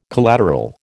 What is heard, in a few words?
"collateral", American